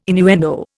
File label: "innuendo", Indian